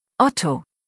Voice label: "Otto", deutsch, jungennamen

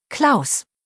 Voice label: "Klaus", deutsch jungennamen

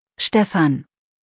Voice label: "Stephan", deutsch, jungennamen

